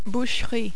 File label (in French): "boucherie", French